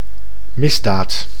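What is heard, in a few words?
"misdaad", Dutch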